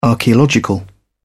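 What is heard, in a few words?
"archaeological", British English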